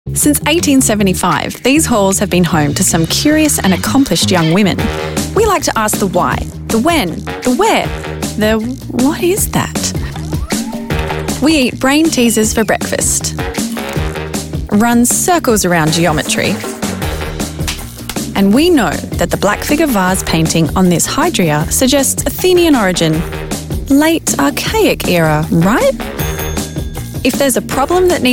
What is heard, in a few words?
Narration